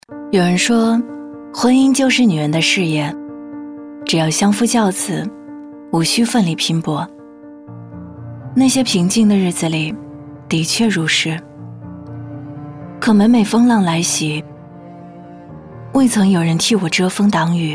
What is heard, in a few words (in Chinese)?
女试音创业带音乐干音